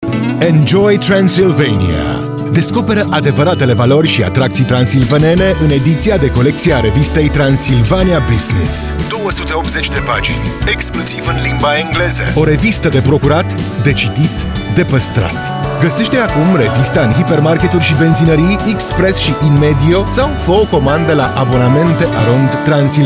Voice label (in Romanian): spot; Transilvania Business